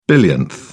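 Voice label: "billionth", English, uk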